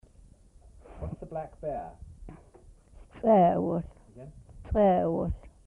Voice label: "black bear", Twana